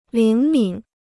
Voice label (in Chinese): "灵敏", Chinese; líng mǐn; smart; clever